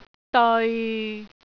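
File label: "deoi6", Cantonese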